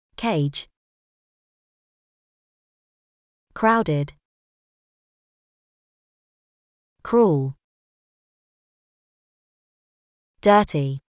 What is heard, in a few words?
uk; zoo